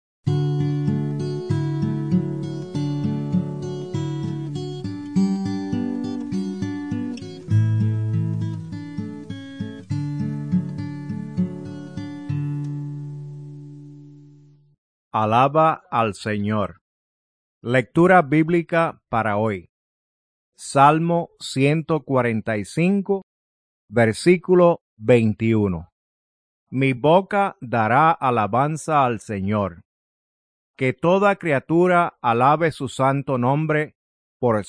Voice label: devotionals spanish cuba